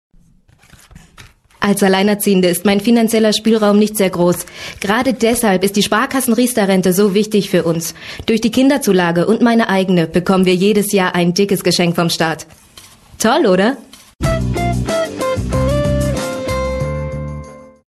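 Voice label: german female narration; voice demos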